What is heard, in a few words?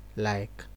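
"like", Nigerian Pidgin, male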